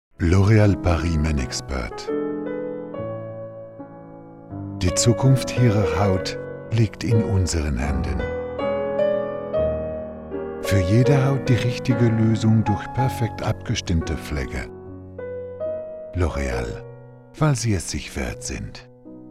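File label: German; Loreal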